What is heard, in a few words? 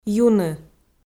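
"юны", Komi, female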